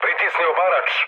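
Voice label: Serbian attack position mood low, speech; War Thunder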